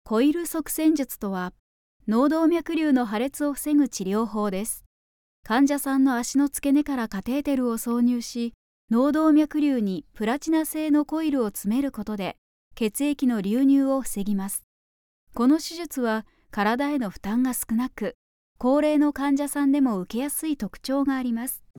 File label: female